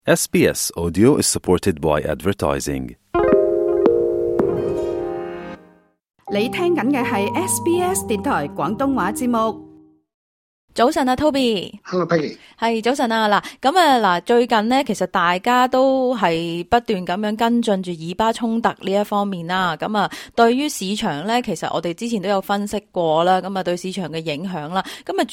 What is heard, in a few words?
cantonese